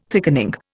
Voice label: "thickening", Indian